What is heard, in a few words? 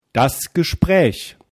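"gespräch", German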